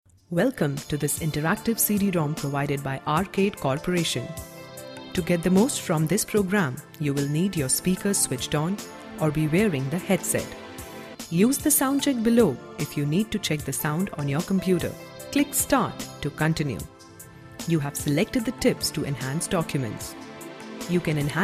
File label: eng, delhi, female voice